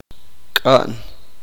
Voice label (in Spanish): uspanteko estar enojado